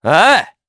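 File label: Vox Aff Japanese; Neraxis; kingsraid; jp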